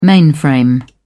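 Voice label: "mainframe", British English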